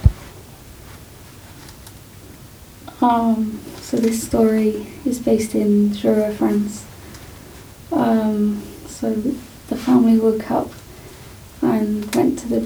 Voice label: L1 English; LnNor Corpus; Controls English